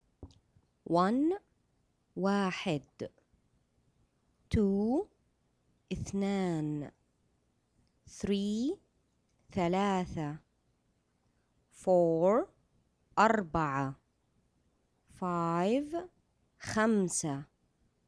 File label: Arabic Digits